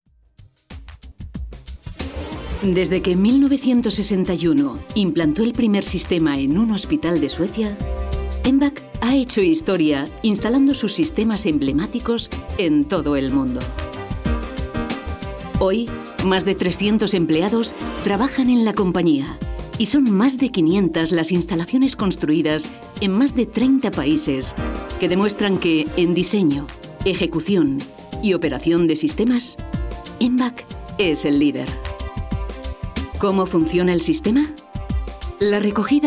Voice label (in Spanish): mujer español Video empresa